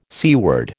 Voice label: "seaward", American

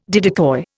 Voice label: "didicoi", Indian

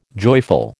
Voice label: "joyful", American

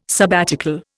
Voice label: "sabbatical", Indian

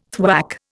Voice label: "thwack", Indian

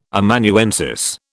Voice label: "Amanuensis", British

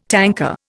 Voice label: "tanker", Indian

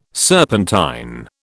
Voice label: "serpentine", British

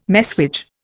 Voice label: "messuage", Indian

